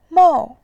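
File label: "mou", Mandarin, tone 4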